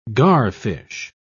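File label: "garfish", British English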